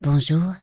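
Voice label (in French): "bonjour", French, dwarf, female; PhoenixCraft-1.16.5; PhoenixNPCPack; minecraft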